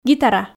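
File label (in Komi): "гитара", Komi, female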